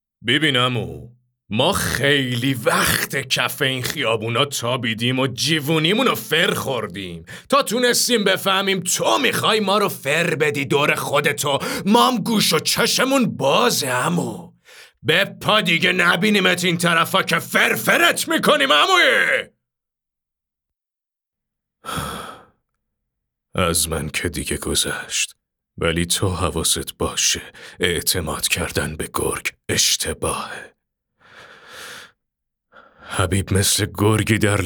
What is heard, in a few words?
narrator